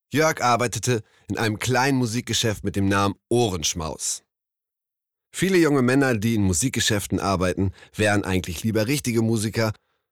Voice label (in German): Lesung